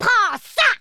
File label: dragonpunch, French speech